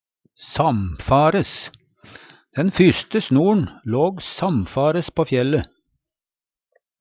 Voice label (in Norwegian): "samfares", Numedalsmål; over alt, utan avbrytelse; Adverb